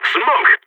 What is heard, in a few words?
smoke, balkan; vo